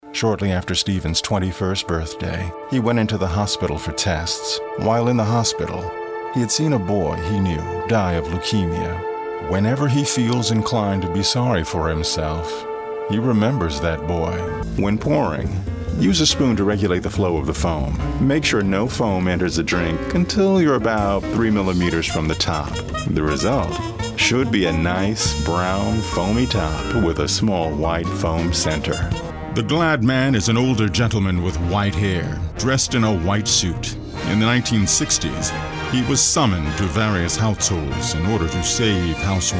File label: Narration